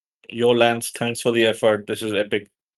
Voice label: voice message; Matrices